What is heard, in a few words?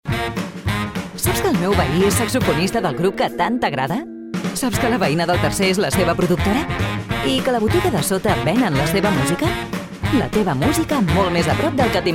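Catalan, female, Commercial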